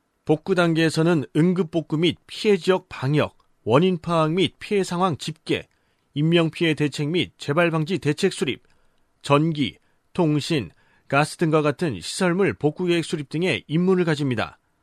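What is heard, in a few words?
Korean, male, narration; korean accent voice over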